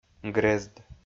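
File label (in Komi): "грезд", Komi, male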